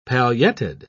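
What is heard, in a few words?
"pailletted", British English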